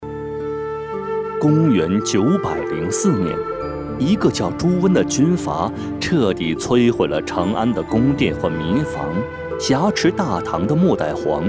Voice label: 【专题】, 男国语, 磁性, 明亮; 井冈山

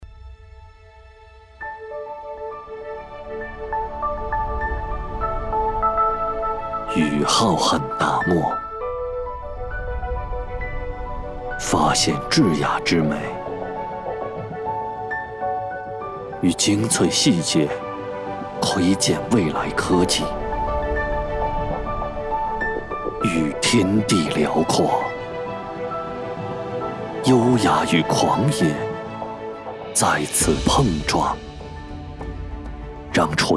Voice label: 【解说词】, 男国语, 磁性, 明亮; 在这段旅行中.

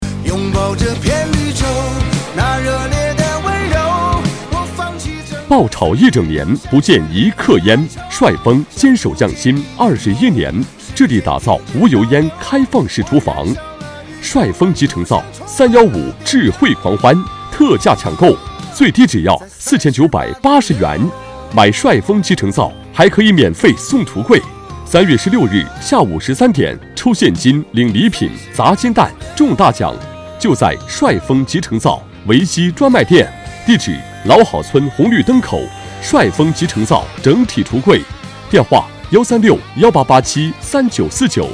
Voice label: 男 国语 磁性 激情, 广告; 帅丰集成灶

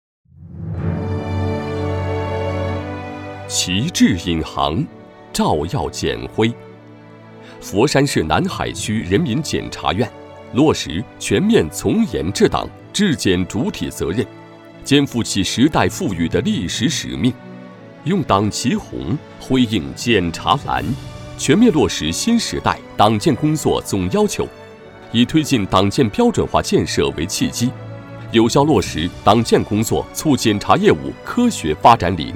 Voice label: 男 国语 磁性 激情, 专题; 人民检察院

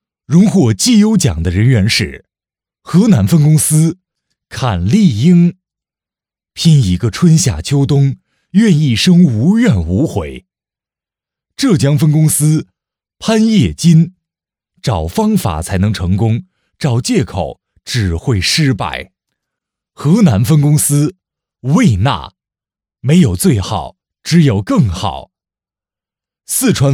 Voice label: 配音师男国语, 庄重大气 激情活力, 颁奖晚会

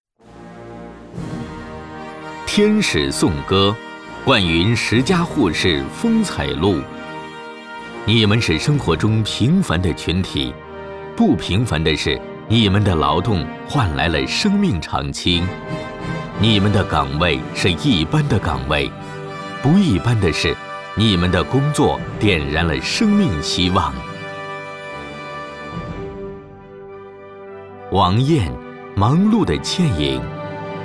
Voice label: 【颁奖】, 国语

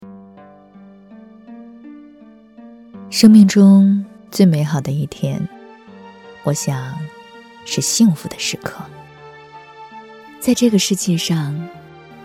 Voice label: 国语 温柔 亲切, 独白; 生命中最美好的

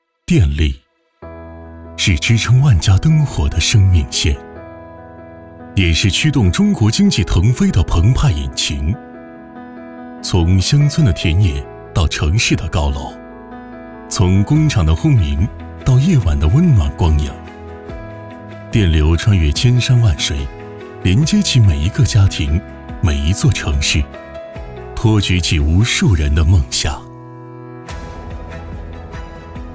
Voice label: 男国语, 专题; 电力宣传

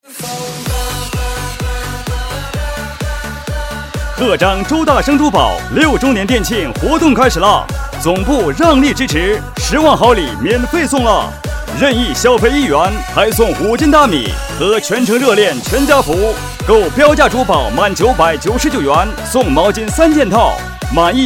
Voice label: 男, 国语, 大气 磁性, 广告; 周大生珠宝

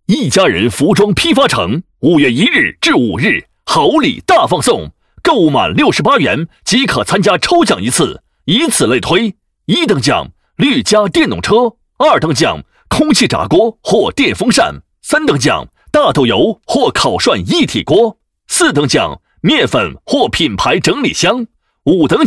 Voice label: 【广告】容声冰箱, 男国语, 磁性, 明亮

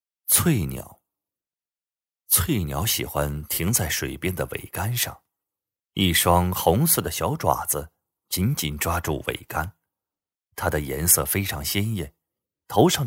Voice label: 【旁白】, 男国语, 磁性, 明亮

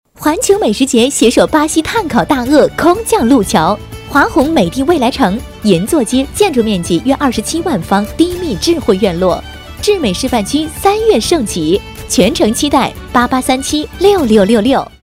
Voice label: 国语 温柔 亲切, 广告; 环球美食节

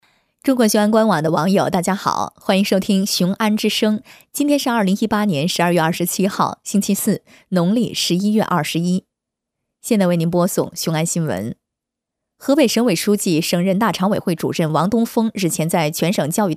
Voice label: 国语 温柔 亲切, 新闻体; 雄安新闻

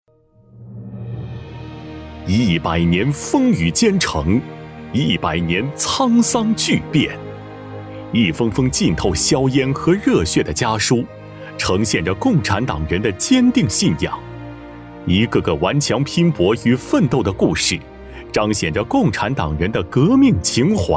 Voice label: 男 国语 配音, 浑厚 大气 时尚, 旁白; 一封家书

